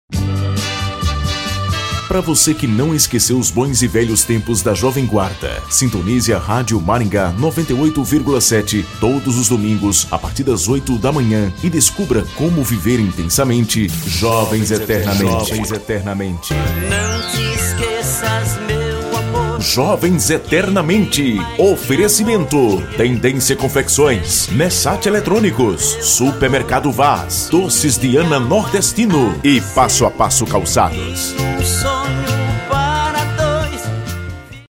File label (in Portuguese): chamada, locutor; chamada jovens eternamente